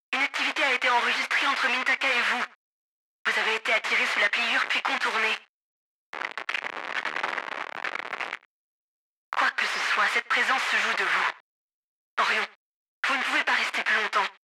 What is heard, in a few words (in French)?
voix radio; Babel; Krush